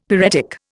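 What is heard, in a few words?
"pyretic", Indian English; Dictionary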